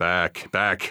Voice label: radio bot fall back; vo; Counter Strike Model Pack; CS:GO; phoenix coop

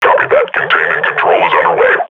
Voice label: combat idle, grunt; Half Life Model Pack

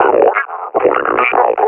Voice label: reconnoiter start, officer; Half Life Alyx; Half Life Model Pack

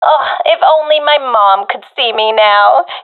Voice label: last unit alive, professional fem; vo; Counter Strike Model Pack; CS:GO

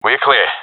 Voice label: sees area clear, professional epic; vo; Source Model Packs; Counter Strike Model Pack; CS2

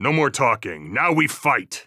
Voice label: radio lock n load; Counter Strike Model Pack; CS:GO; phoenix coop

